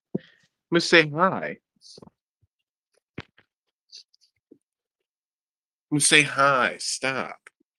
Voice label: voice message